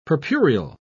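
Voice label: "purpureal", British English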